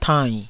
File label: "tainn3", taiwanese Syllables